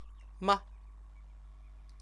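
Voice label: "ma", Chinese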